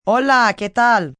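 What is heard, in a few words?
How Are You Spanish female; Greetings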